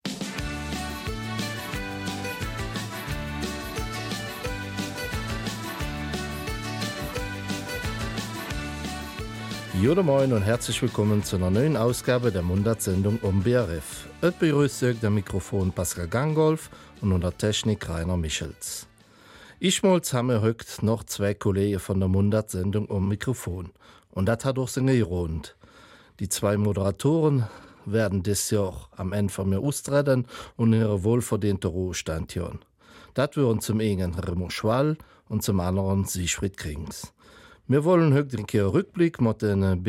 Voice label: Eifeler Mundartsendung; Abschied von zwei Moderatoren

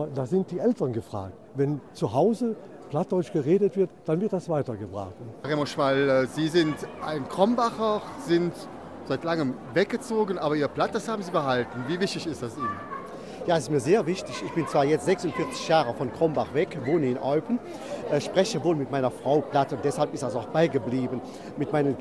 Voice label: Die Eifeler Mundart lebt, Mundartabend in St Vith; BRF2

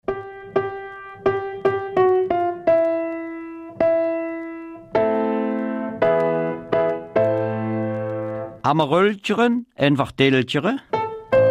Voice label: Mundart Eifel; Neue Bauprojekte aus St Vith

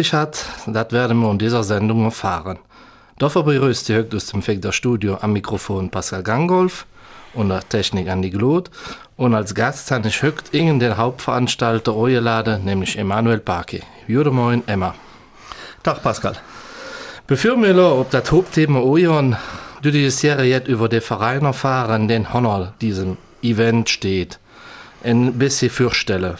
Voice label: Mundart; Die Vegder Wasen

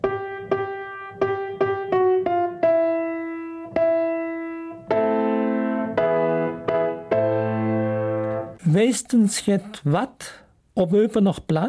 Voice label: Eupener Mundart

